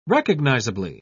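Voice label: "recognisably", British English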